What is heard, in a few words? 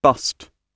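"bust", British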